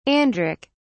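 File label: "andric", English, British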